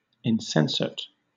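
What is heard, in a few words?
"insensate", British English; pronunciations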